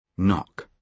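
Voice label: "knock", British English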